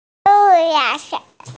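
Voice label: "goat", hearing impaired; shan1yang2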